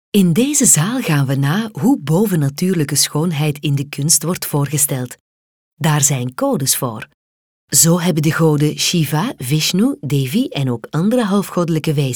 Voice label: Audioguide, informatief; Informationen; Europalia India